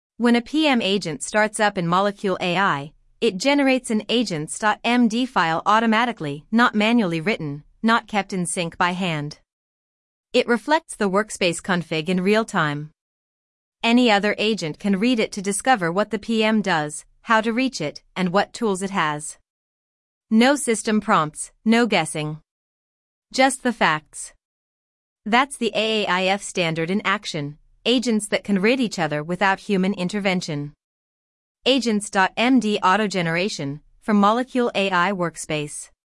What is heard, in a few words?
narration; agents-md-auto-generation